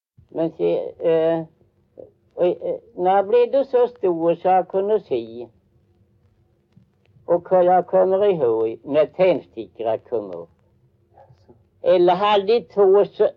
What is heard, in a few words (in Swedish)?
Hogsby, dialekter; SMAL